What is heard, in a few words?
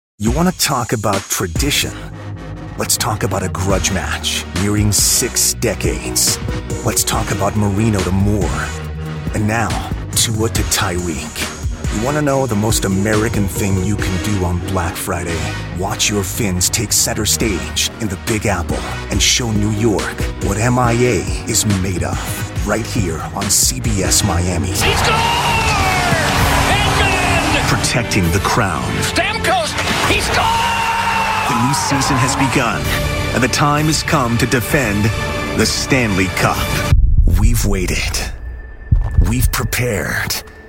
promo men sports